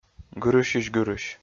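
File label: "гырысьысь-гырысь", Komi, male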